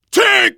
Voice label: warn tank, voice, biker; Left 4 Dead 2; left4dead2 dlc3; survivor